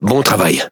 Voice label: Speech Quick Commands Good Game, FR; World Of Warships

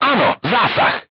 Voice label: voice message direct hit, crew tanks czech

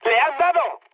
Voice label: aircraft destroyed mood high; War Thunder

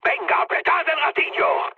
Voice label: attack position, mood high, speech; War Thunder

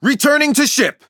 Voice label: Speech Pilots Fighter Land, EN; World Of Warships

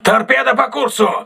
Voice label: voice message torpedo, crew ships russian

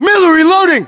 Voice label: inform reloading, US

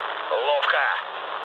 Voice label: trick, speech ru

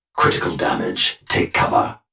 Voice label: critical damage take cover, Ronin Voice; Titanfall 2

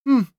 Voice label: mutter; Escape from Tarkov; scav6

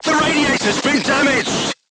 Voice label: voice message radiator damaged, vietnamese

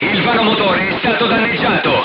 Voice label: voice message engine damaged, crew tanks italian

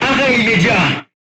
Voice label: voice message short stop, crew tanks french

